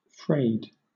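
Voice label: "frayed", British English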